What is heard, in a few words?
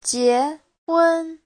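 "jiéhūn", Mandarin Chinese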